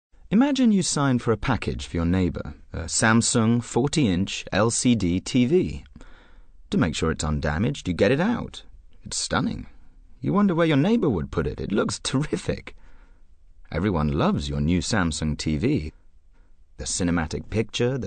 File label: Commercial Reel